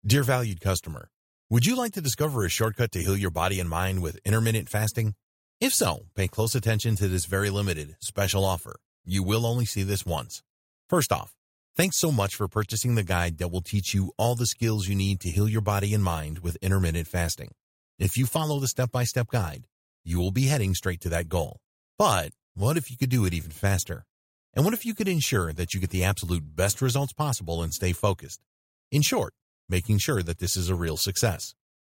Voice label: Upsell Sales Video Voice Over